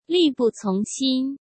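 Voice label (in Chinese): "力不从心", Mandarin; hsk; chinese-flashcards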